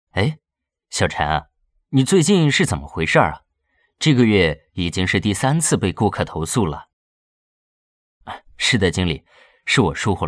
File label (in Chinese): 人物形象 主管 中年音 课件